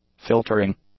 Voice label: "filtering", English; nouns